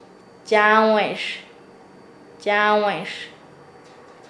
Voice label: Nasa Yuwe; ellos ellas; paez